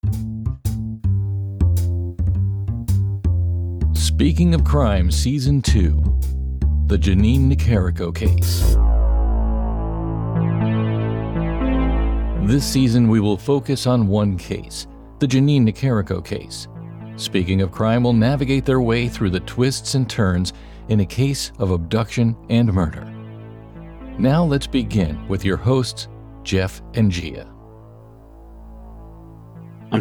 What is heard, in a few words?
Podcast Intro Outro